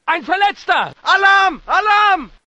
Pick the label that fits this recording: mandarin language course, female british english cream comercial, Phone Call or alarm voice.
alarm voice